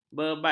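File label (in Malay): "bebat", Malay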